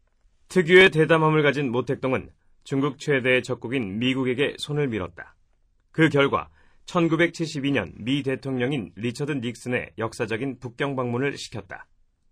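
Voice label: Korean, male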